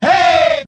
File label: "hey", group of men